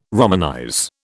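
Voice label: "romanize", British English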